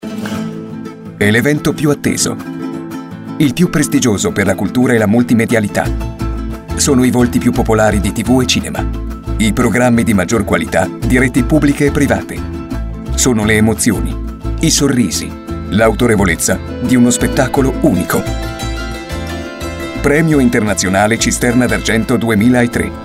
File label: promo; Premio Cisterna d'argento 2003 Promo RAI